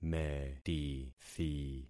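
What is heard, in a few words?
"metithi", concatenated syllables; Affixing experiment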